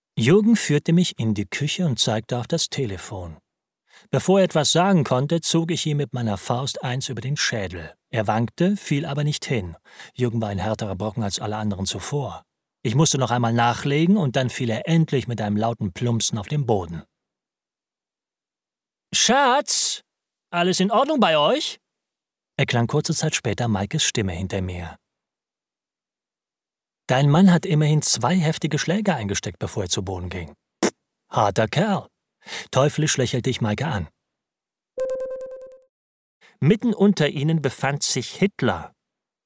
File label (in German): Hoerbuch